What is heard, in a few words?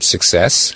"success", Voice